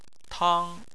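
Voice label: "tang", Chinese, first tone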